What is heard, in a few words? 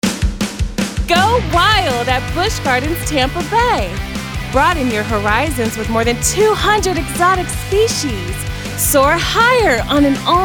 up-beat delivery; Go Wild